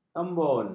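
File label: "embun", Malay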